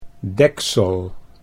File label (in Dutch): "deksel", Dutch